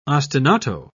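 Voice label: "ostinato", British English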